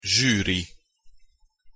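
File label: "zsuri", Hungarian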